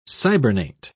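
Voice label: "cybernate", British English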